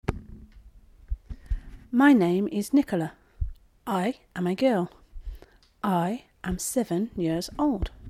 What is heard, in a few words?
Full text girl